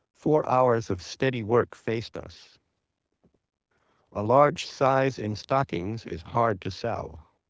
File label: lpcnq, male; lpcnet codec